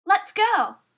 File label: Female Lets Go